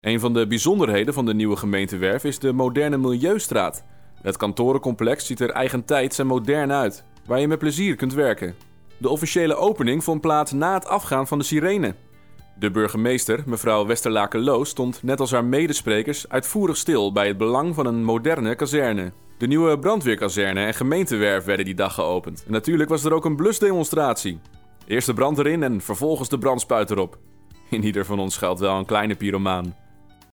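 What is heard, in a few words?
dutch male narration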